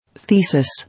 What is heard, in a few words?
"Thesis", English